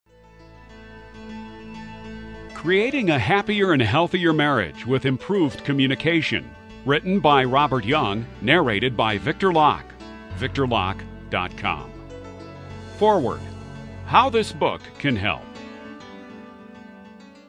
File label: online demo narration books